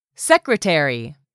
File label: "SECRETARY", english; 3000 words